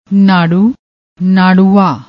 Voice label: "naarru", Punjabi; ਨਾੜੂ